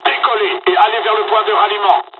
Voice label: takeoff, dialogs french; midway; War Thunder